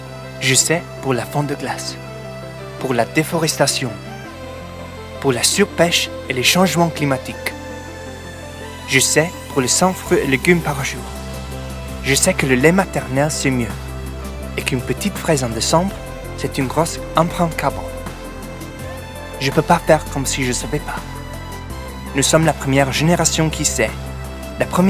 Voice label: French

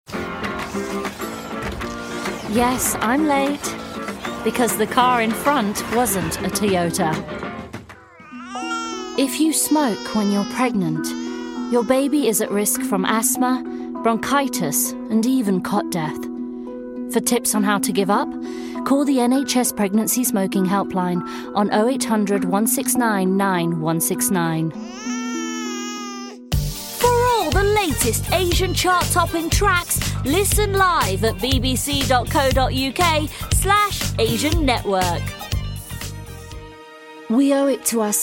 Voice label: Commercial VO

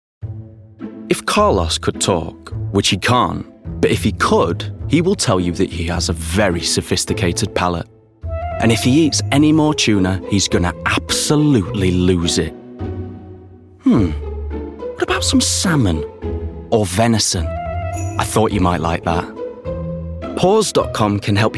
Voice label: Commercials

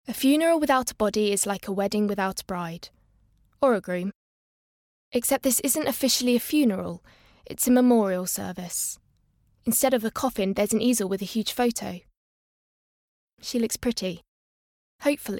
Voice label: Audiobook

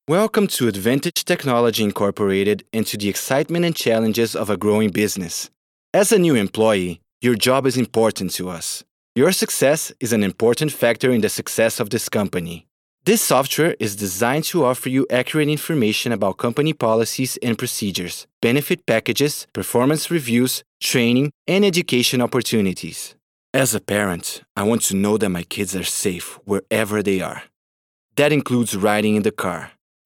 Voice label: English, Portuguese-Brazilian, Male, 20s-30s

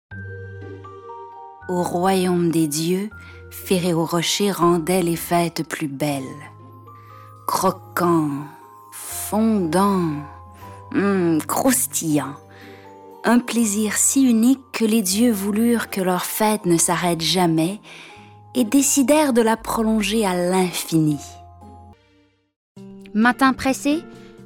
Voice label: French

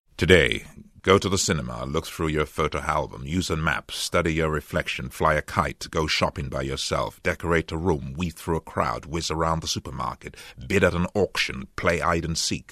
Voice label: Commercials

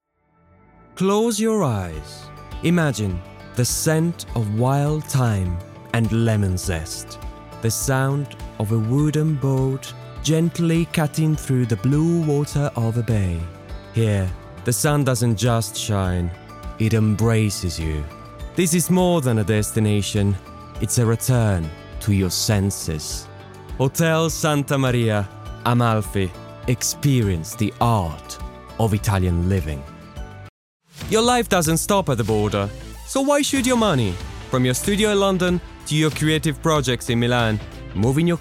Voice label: English

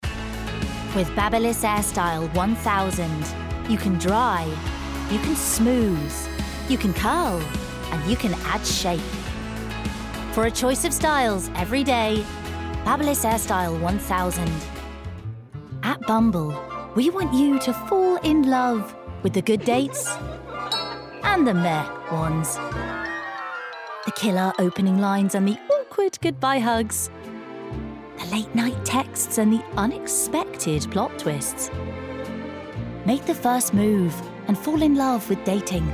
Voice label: Commercials; regional accents

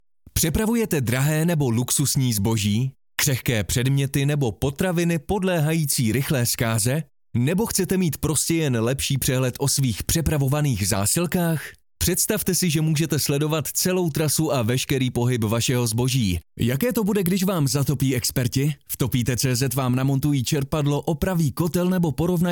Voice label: Czech

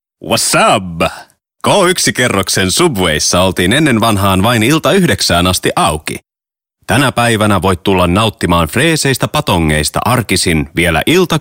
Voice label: Finnish

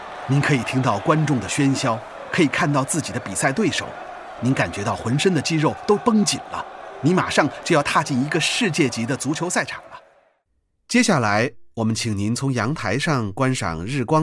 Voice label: Mandarin

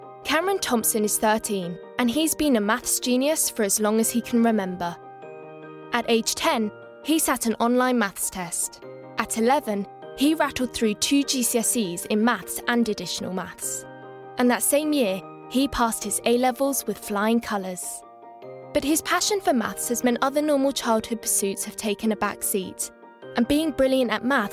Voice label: Documentary

